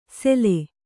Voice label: "ಸೆಲೆ", Kannada